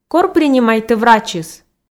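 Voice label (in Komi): кор принимайтӧ врачыс, Komi, female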